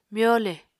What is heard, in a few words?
"myole", Apatani